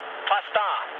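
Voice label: German near waypoint mood low, speech; War Thunder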